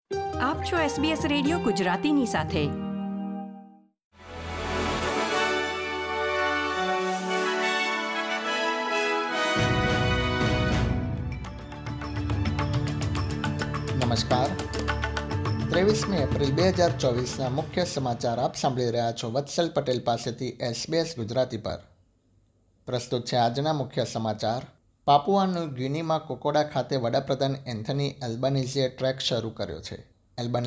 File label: gujarati news bulletin; sbs